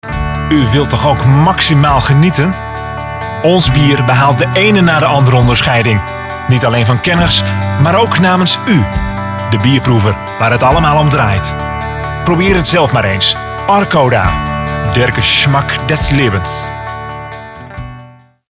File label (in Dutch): Stemdemo Commercial